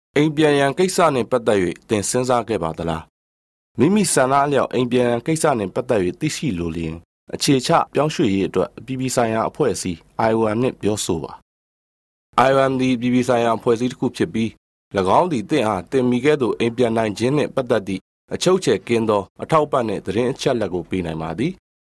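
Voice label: Burmese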